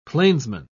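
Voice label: "plainsman", British English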